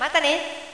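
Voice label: "matane", Japanese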